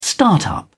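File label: "start up", British English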